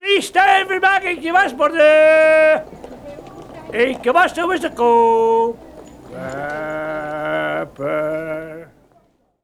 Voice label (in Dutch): straatroep wasbord